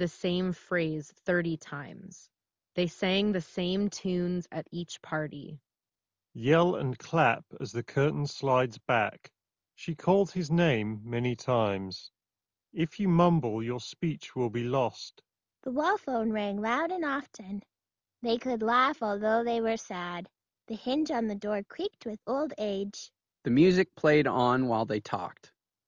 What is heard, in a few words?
Wireless Ideal microphone sample; Corsair HS55